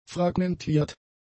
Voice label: "fragmentiert", German; adjectives

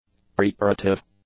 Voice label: "preparative", english; adjectives

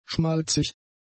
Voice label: "schmalzig", German; adjectives

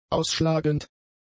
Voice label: "ausschlagend", German; adjectives

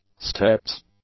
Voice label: "steps", english; nouns

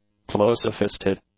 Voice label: "closefisted", English; adjectives

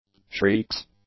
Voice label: "shrieks", English; nouns

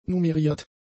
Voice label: "numeriert", German; adjectives

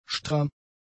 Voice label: "stramm", German; adjectives

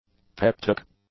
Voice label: "peptic", English; adjectives

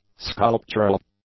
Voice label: "sculptural", English; adjectives

